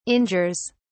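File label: "injures", British English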